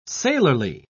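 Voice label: "sailorly", British English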